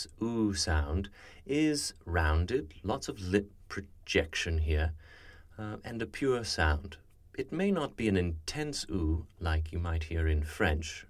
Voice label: Goose, rp; speech; dialects